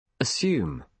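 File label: "assume", British English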